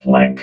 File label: "plank", robot